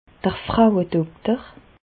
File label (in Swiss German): "frauedokter", Bas Rhin; medecine; gynécologue